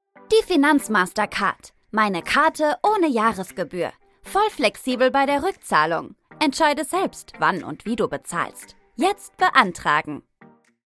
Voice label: german e-learning

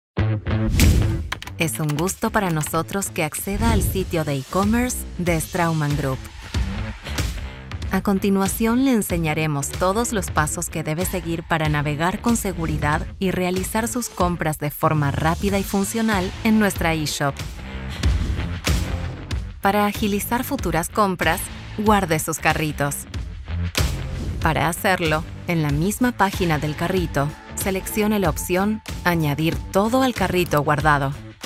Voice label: Spanish Latin American explainer videos

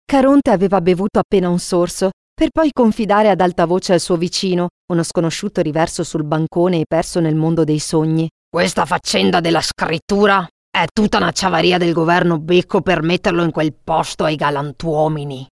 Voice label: italian narration

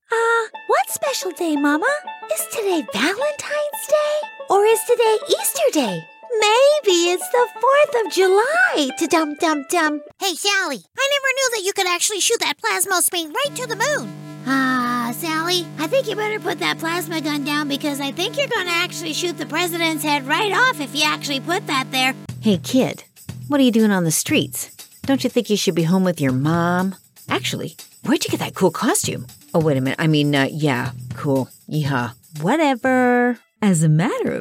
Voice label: English canadian animation

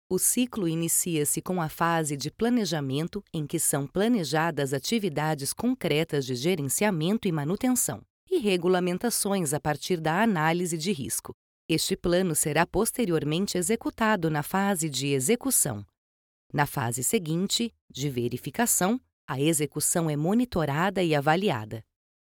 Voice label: portuguese brazilian e-learning

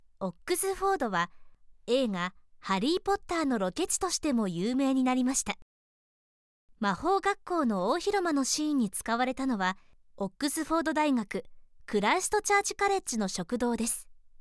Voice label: Japanese audiobooks

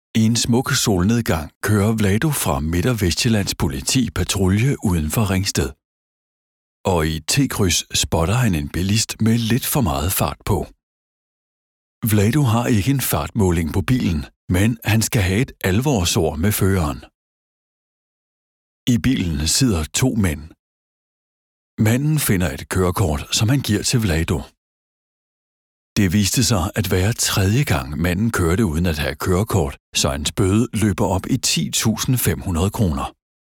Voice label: danish documentaries

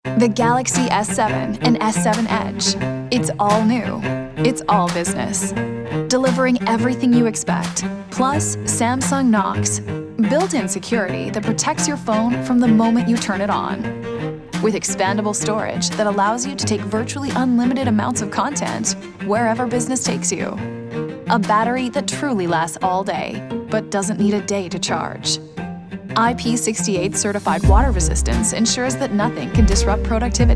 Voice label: english canadian corporate videos

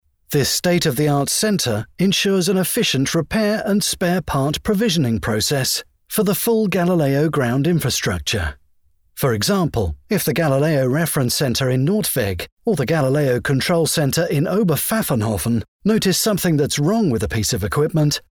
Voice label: english british training e-learning

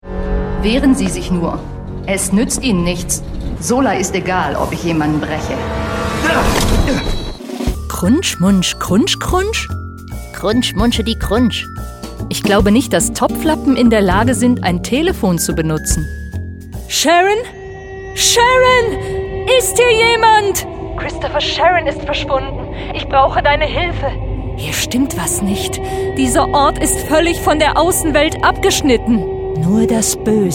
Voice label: german video games

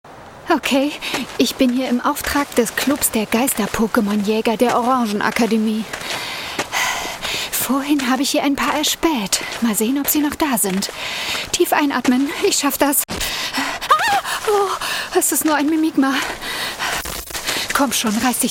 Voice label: german animation, german voice actors

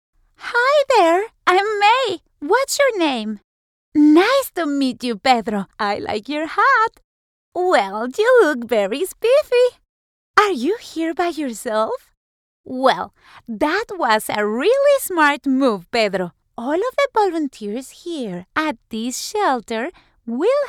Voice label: english animation

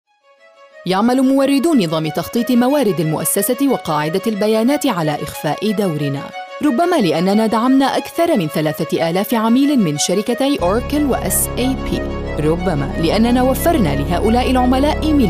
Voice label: arabic commercial

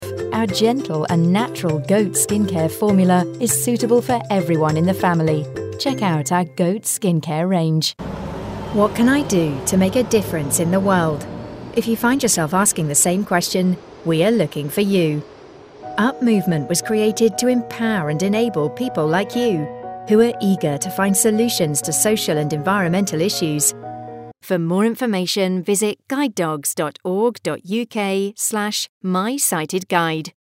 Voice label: english british others

